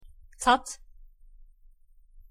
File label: "tat", Turkish